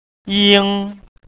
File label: "ing" Mandarin